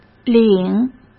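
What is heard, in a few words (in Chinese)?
"领", Mandarin; chinese flashcards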